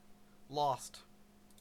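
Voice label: "lost", Canadian English; pronunciations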